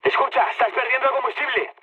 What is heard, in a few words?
tank damaged, mood high, speech; War Thunder